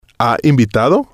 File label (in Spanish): "ha Invitado", spanish